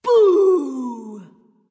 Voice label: spooky; cupid